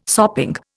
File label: "sopping", Indian